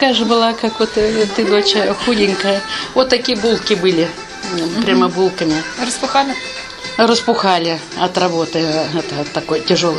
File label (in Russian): среднеобский говор; доча